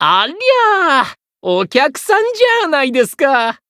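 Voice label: Voice encounter Japanese; kuchinawa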